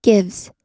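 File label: "gives", American English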